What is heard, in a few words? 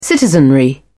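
"citizenry", British English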